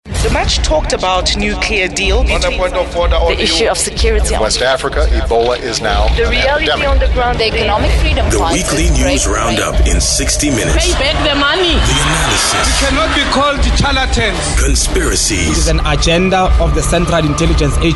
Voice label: Power Newsroom Promo; ECA Winner